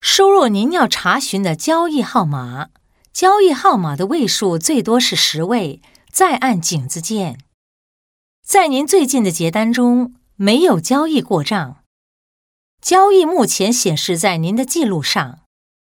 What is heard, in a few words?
IVR Mandarin, China Female